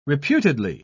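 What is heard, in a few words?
"reputedly", British English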